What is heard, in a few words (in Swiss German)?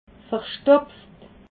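"verstopft", Alsatian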